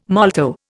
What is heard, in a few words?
"molto", Indian